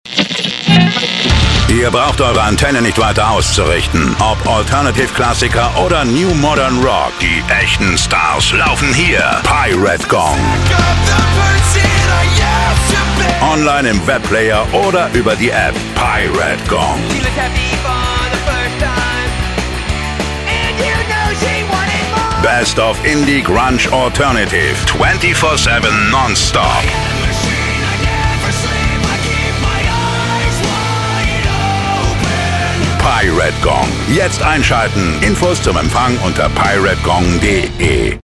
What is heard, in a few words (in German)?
Promo; Radio Gong 97.1 Nürnberg 2018; Pirate; Audioproduktionen